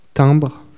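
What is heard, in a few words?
"timbre", French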